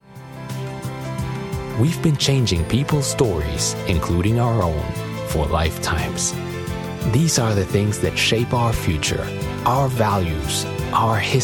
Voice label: English international corporate videos

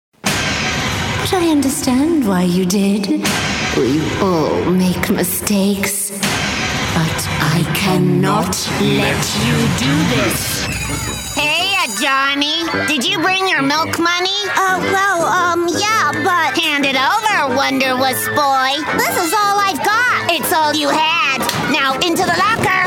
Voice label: english neutral cartoon animation

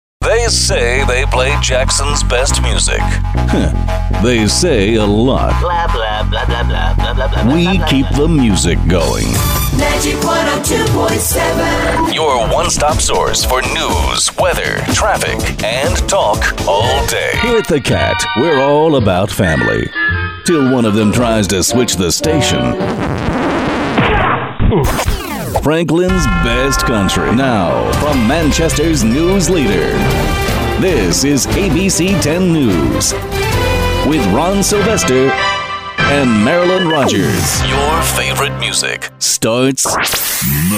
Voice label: english american radio imaging

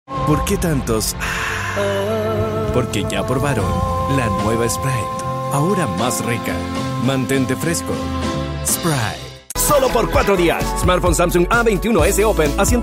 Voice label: spanish chilean commercial

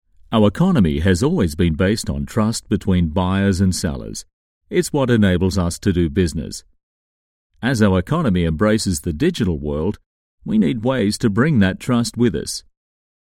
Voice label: english australian e-learning

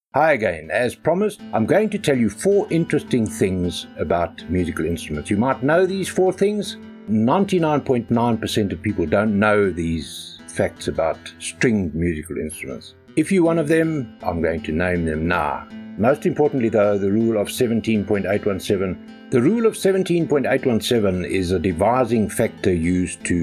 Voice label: english south african e-learning

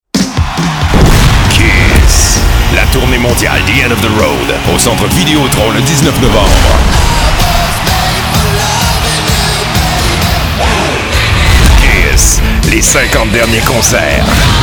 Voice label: french canadian promos

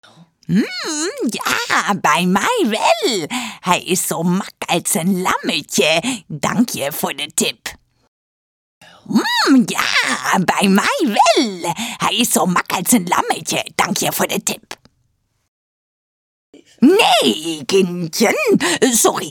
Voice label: dutch video games

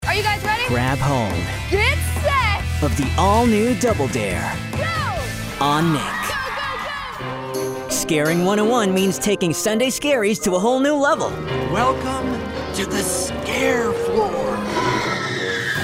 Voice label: tagalog promos

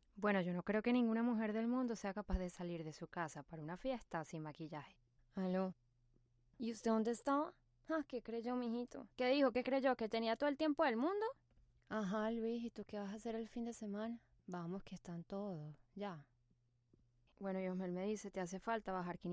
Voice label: spanish colombian others

